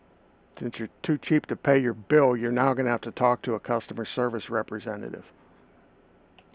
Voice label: Voice Auto Forward Message; Futura IVR Handlers